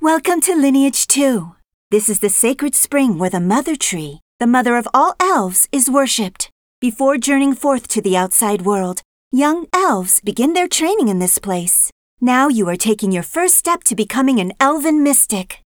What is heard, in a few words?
tutorial voice; lineage2